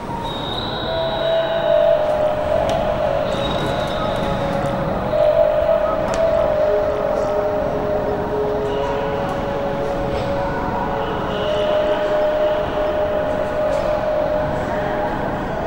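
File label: announcers clear loud voice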